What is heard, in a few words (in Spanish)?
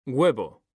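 "huevo", Spanish, male; mekanta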